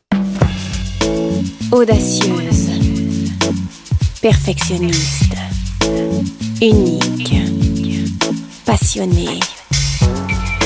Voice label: voix femmes

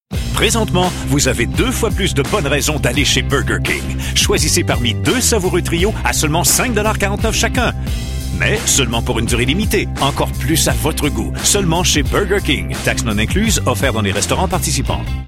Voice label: voix, hommes

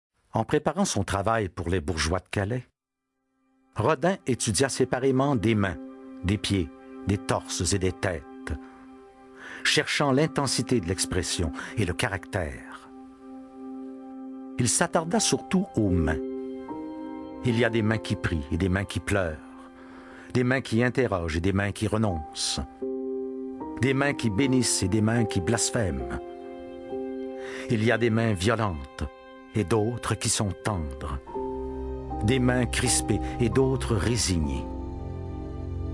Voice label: narration, voix hommes

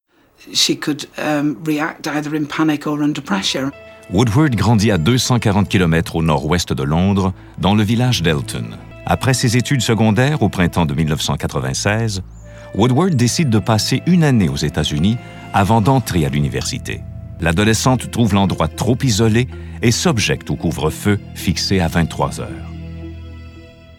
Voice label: Surimpression vocale

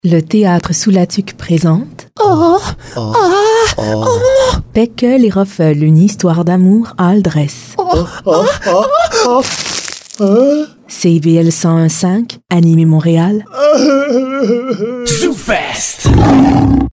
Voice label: pub, voix femmes

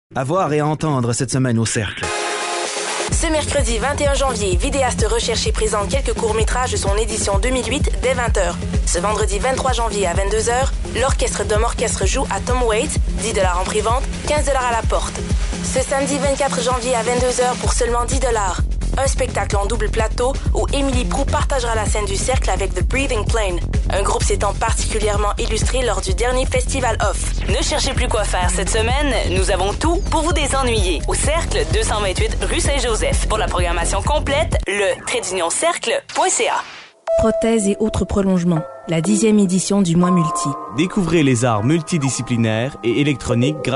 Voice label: pub, voix femmes

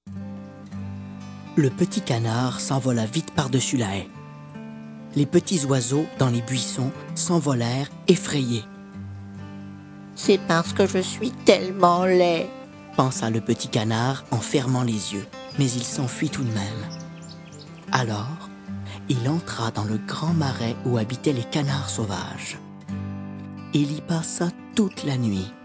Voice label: narration, voix hommes

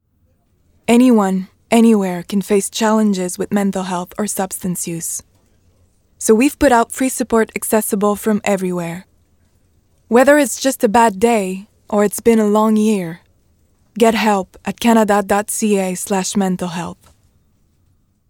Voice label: voix femmes, Mental health

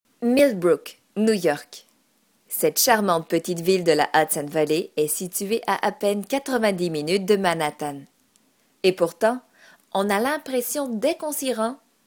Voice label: narration, voix femmes

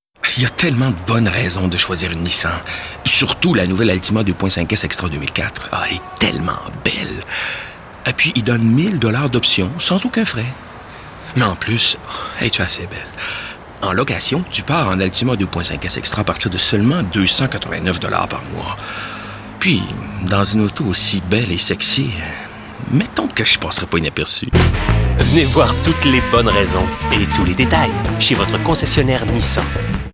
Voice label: voix, hommes; Nissan

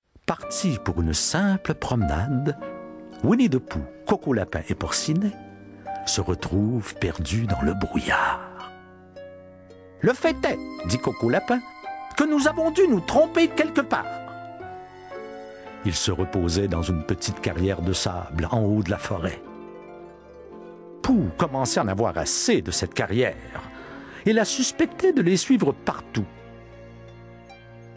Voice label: narration, voix hommes

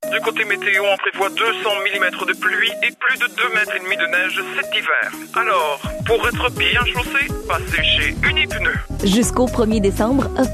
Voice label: voix femmes

